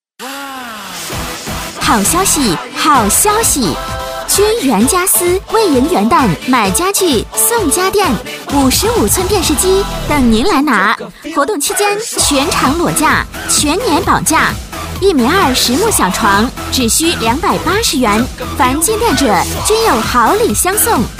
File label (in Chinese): 广告 促销 家电促销; 君缘家私家电促销